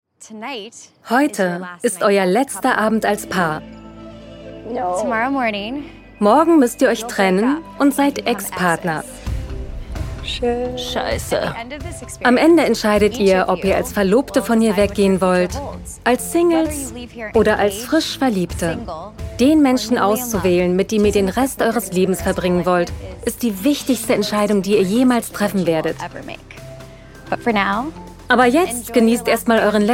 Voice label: VO TV Show; LOFT VOICES

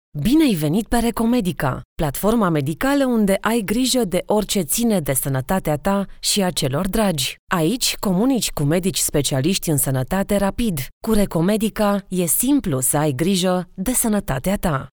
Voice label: Presentation

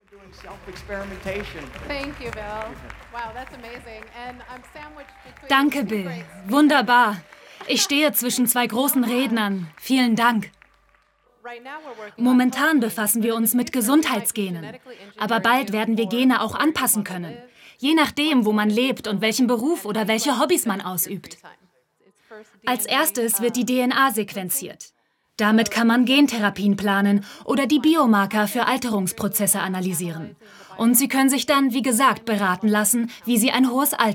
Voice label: Voice Over

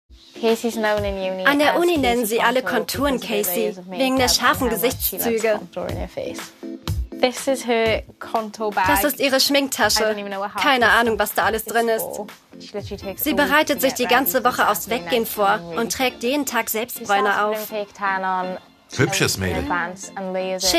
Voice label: Voice Over Serie

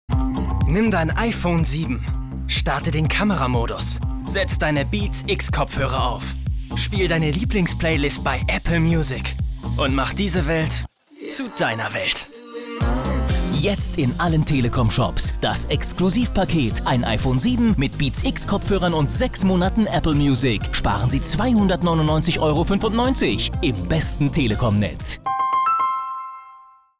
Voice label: COMMERCIAL OFF; Telekom Apple

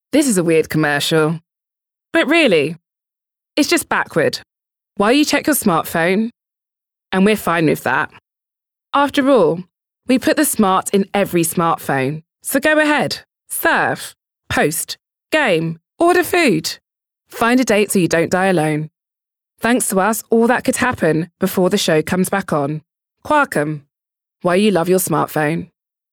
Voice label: Commercial (Werbung), English UK; Smart Phone; LOFT VOICES

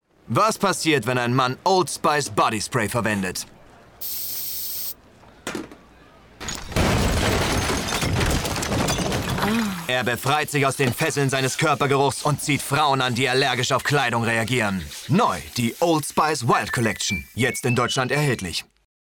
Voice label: advertisement; old spyce

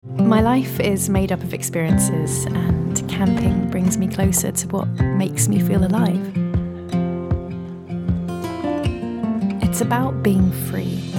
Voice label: Voice Commercial camping natural; LOFT VOICES

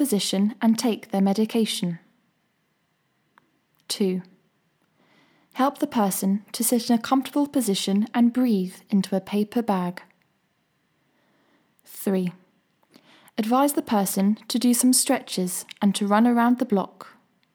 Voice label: e-learning asthma attack

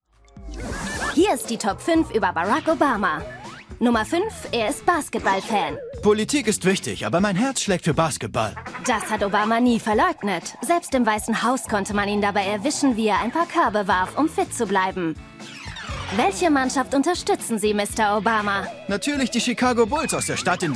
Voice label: Voiceover; Arte; Echt Genial

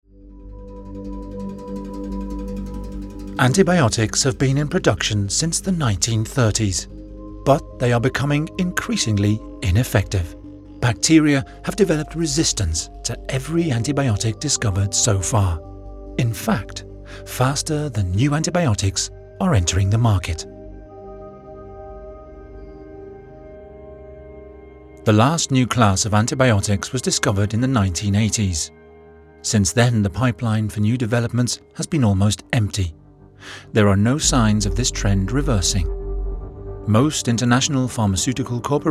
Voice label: EN VO; Silent Pandemic

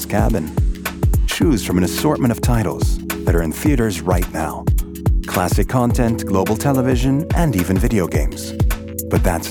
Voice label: Inflight Entertainment; TransAir

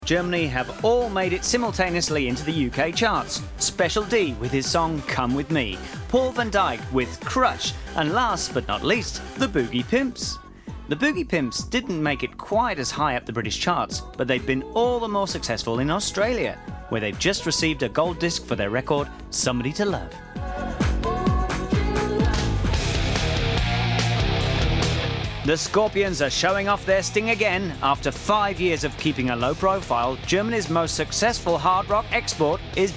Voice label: tv presenter; LOFT VOICES

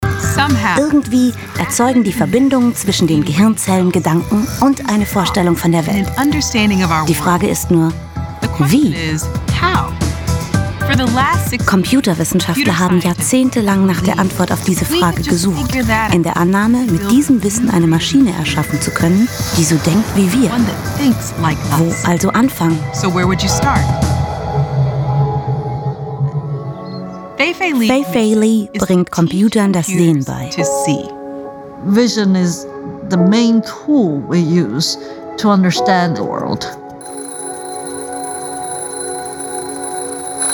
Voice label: Voice Over

